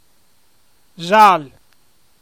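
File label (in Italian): "Śal" Emilian dialect word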